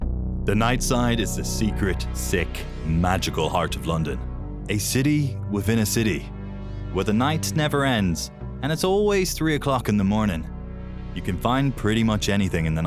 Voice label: Audiobook; The Nightside